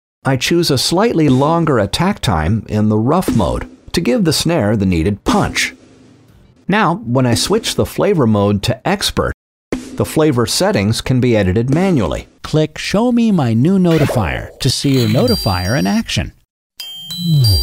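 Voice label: American English, commentary, Variabel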